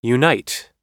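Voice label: "unite", English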